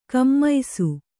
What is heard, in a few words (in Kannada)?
"ಕುಮ್ಮಿಸು", kannada